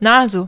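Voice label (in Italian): "naso", italiano; ktuberling; games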